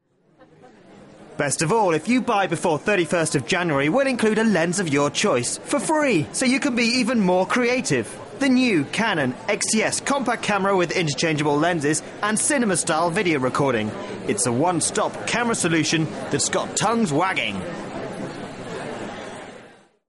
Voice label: commercial, voiceover; Narration